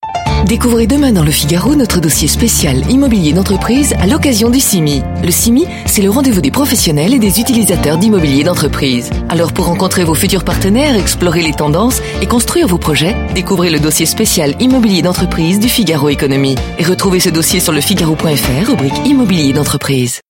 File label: radio informative; figaro immobilier